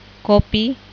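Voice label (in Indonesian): "kopi", Indonesian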